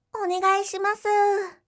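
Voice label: "onegaishimasu", Japanese; aisatsu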